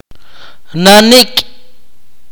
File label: "today", akateko